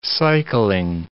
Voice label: "cycling", english

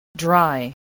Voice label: "dry", English

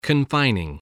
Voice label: "confining", english

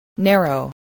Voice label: "narrow", voice

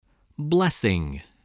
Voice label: "blessing", spelling

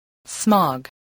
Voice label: "smog", voice, spelling

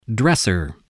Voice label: "dresser", English

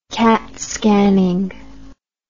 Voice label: "catscanning", English

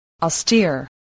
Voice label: "austere", english

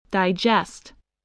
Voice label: "digest", english spelling

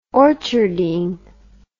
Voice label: "orcharding", English

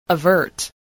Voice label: "avert", english

